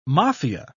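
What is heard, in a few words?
"maffia", British English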